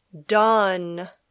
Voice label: "done", English

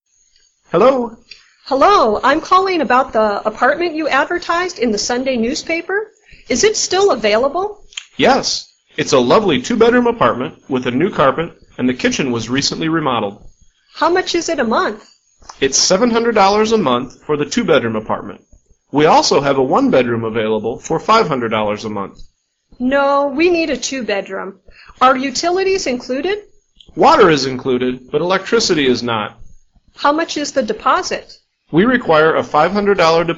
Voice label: Dialog

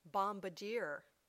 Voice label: "bombardier", English

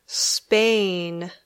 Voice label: "Spain", English

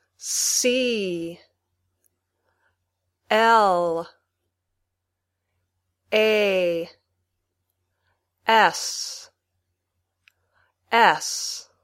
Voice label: Spelling, Basic English

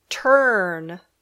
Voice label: "turn", English

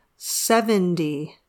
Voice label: "seventy", English

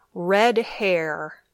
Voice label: "red hair", English

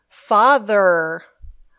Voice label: "father", English

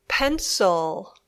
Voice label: "pencil", English

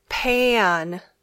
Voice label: "pan", English

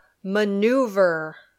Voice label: "maneuver", English

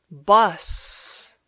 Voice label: "bus", English

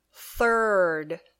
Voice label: "third", English

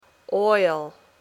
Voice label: "oil", English

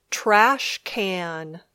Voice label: "trash can", English

